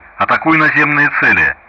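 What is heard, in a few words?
Speech Sound Russian; DCS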